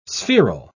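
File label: "spheral", British English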